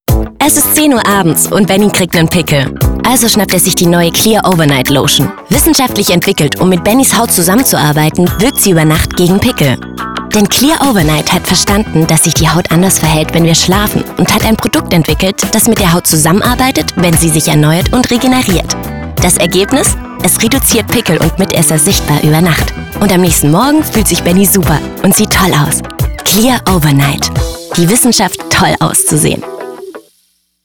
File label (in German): Werbung